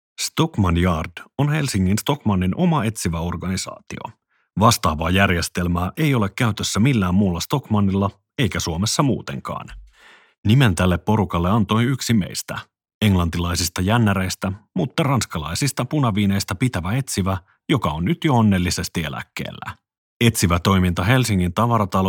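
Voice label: Finnish Audiobook Male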